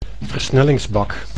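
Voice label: "versnellingsbak", Dutch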